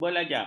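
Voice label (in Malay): "belajar", Malay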